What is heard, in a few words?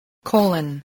"colon", voice